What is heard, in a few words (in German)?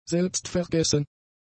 "selbstvergessen", German; adjectives